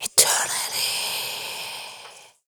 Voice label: WHISPER; WHISPERS